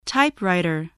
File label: "typewriter", English